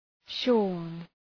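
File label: "shorn" English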